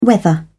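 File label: "weather", British English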